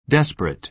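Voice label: "desperate", American English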